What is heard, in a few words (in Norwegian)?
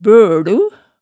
"bølu", hemsedalsmaalet tale